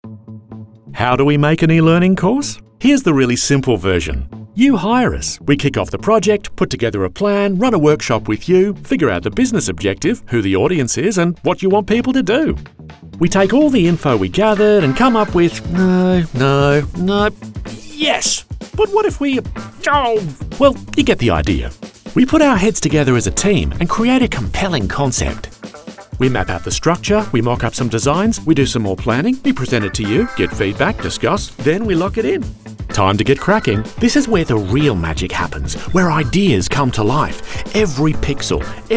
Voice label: Chatty Explainer

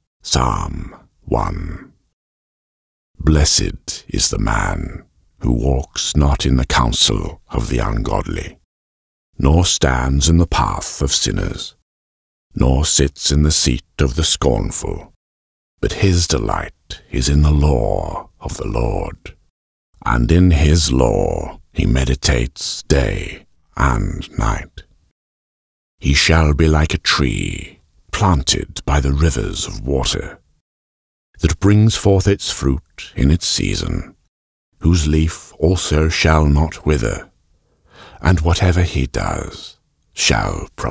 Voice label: DRY Vocal narration; PSALM 1; JEREMY IRONS style

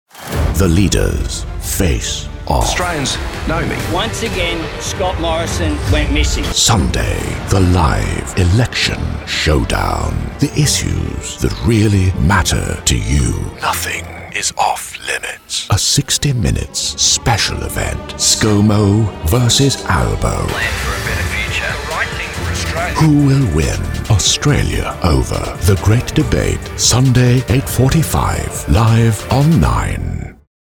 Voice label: Promo sample

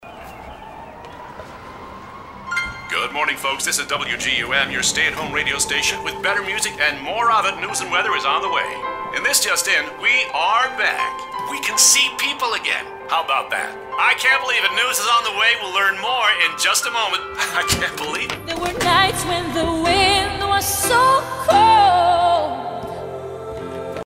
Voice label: US Radio DJ; Extra Gum

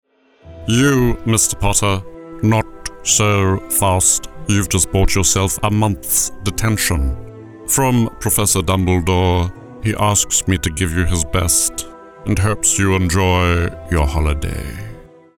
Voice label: Severus Snape Impression; Alan Rickman

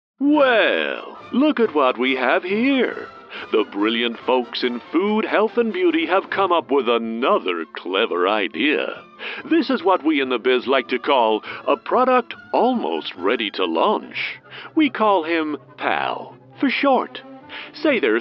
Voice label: American Version; Pathe

